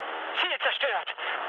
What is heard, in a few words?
bomb success, mood med, speech ge; War Thunder